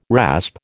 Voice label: "rasp", American